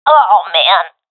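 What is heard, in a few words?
death, professional female; vo; Counter Strike Model Pack; CS:GO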